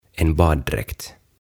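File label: "En Baddräkt", Swedish; Uimapuku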